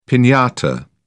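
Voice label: "pinata", British English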